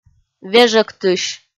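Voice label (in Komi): "вежӧгтысь", Komi, female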